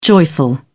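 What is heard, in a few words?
"joyful", British English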